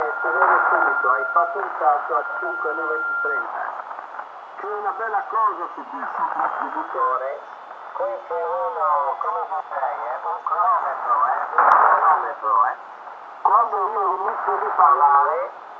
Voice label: ssb it